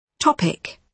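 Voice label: "topic", British English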